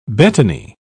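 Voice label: "betony", British English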